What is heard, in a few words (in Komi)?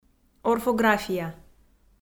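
"орфография", Komi, female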